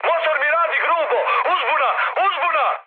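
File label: Serbian damaged engine mood high, speech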